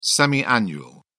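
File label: "semi annual", English, British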